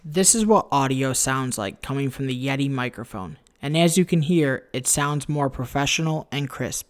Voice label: Microphone Test; Yeti